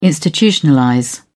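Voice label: "institutionalize", British English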